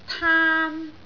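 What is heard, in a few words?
"taan1", Cantonese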